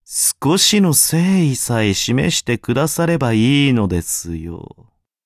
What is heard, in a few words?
upgrade, ja; cookie run